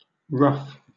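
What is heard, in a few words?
"ruff", British English; pronunciations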